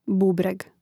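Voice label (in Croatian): "bubreg", Hrvatski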